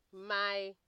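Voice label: "my", Nigerian Pidgin, female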